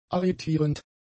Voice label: "arretierend", German; adjectives